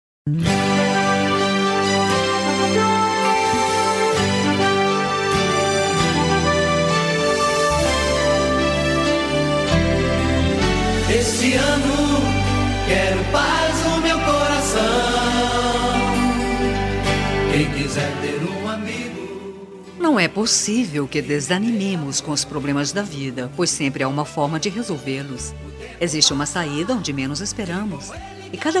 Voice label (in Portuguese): FELIZ ANO NOVO FEM; Datas importantes; Natal e Ano novo